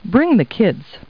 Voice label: people, kid